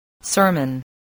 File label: "sermon", spelling